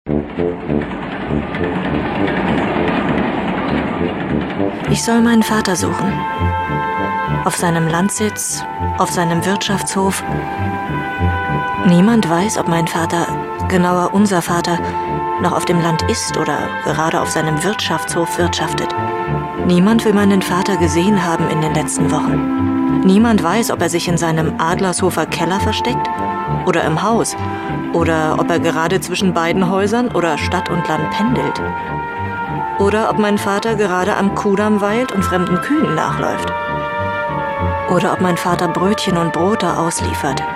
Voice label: erzaehlung, deutsch frauen; sprecher

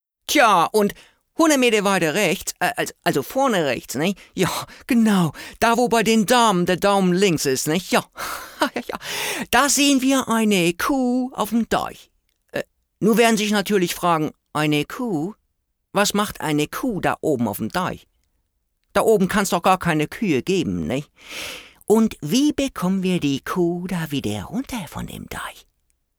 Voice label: norddeutsch; sprecher

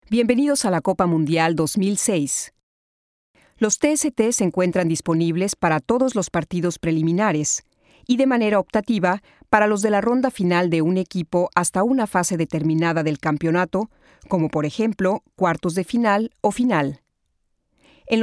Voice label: telefon; spanisch

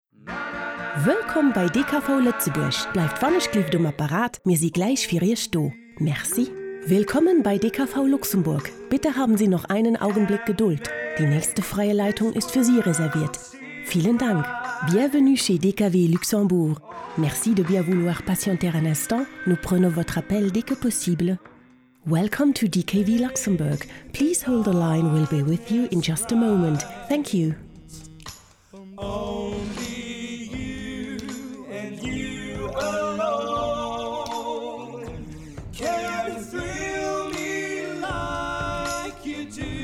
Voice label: Telefon; sprecher

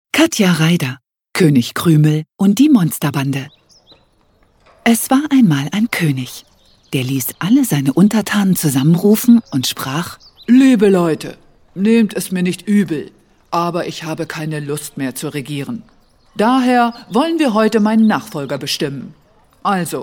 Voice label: hoerbuch, deutsch frauen; sprecher

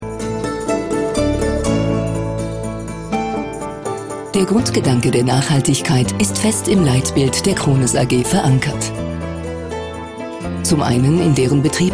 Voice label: imagefilm, deutsch frauen; sprecher

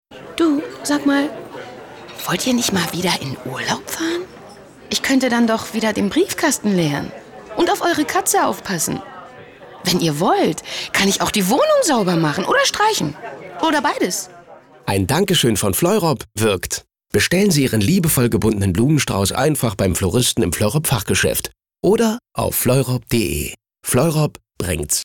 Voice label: Werbung, deutsch maenner

